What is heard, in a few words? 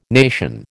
"nation", American